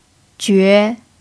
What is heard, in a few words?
"jue2", Mandarin pinyin